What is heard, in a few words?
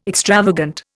"extravagant", Indian